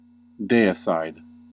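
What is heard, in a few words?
"deicide", US English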